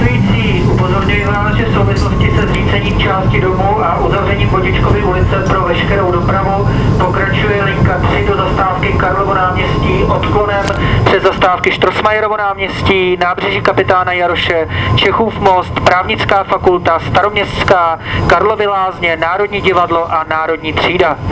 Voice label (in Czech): hlášení